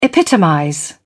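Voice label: "epitomize", British English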